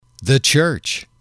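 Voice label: "the church", English, male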